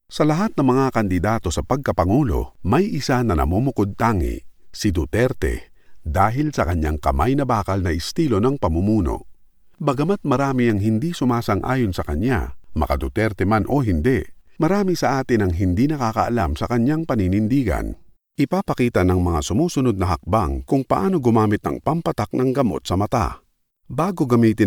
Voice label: Tagalog Filipino voice sample